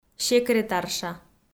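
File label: "секретарша", Komi, female; kpv audio dict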